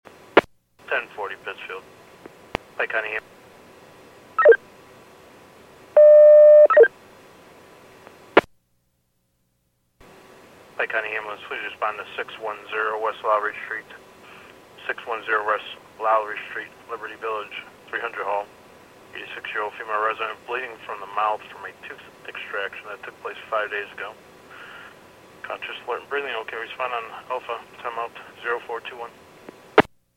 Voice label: PAGING